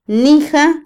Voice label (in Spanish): iglesia, Otomí, mujer; Religión; INALI